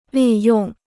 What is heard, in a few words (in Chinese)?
"利用", Chinese